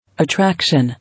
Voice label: "Attraction", English